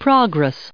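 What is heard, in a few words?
"progress", us English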